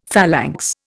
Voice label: "phalanx", Indian; Dictionary